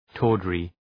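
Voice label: "tawdry", English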